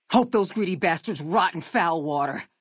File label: female adult greeting; FNV